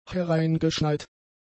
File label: "hereingeschneit", German; adjectives